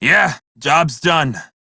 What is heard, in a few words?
crew task done, male; Dialog; en; Warframe; Railjack Crew; Red Veil